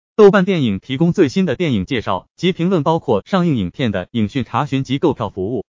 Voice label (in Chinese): 男声